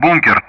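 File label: Speech Sound Russian; Common Player Target bunker; DCS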